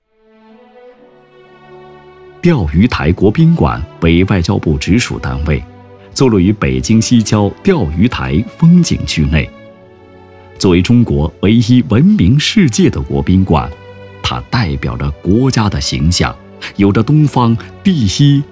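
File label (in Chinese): 专题（大气、豪迈）; 钓鱼台国宾馆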